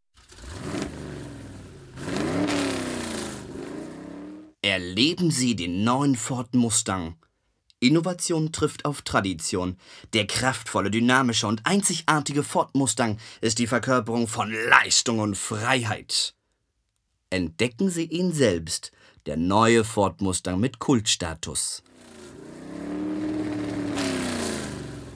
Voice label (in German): Werbung